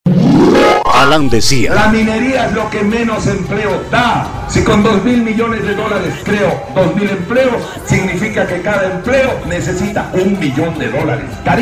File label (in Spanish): Spot Presidentes Conga; Agricultura Agua Y Minera En Alan Garcia Y Ollanta Humala